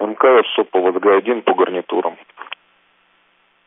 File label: Space to Ground; Expedition 39